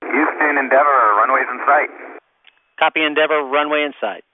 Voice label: runway in sight, NASA Space Shuttle Communications; VOCALS and SPOKEN WORD